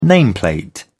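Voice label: "nameplate", British English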